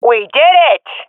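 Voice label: round won, swat female; vo; agents; Source Model Packs; Counter Strike Model Pack; CS2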